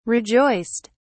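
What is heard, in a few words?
"rejoiced", British English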